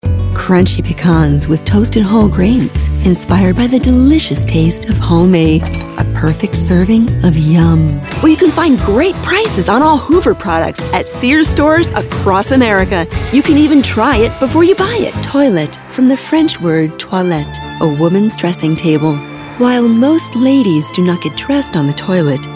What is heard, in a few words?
Commercial